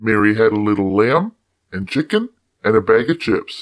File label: Mary Lamb Man; Anime Studio Pro; Animation